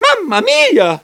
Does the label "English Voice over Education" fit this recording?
No